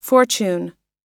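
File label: "fortune", American English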